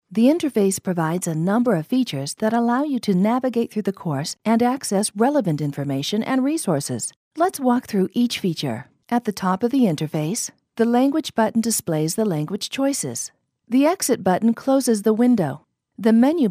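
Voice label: English British female e-learning; ENG GBR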